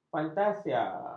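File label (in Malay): "fantasia", Malay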